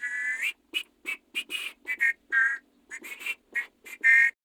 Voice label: Patrol, Male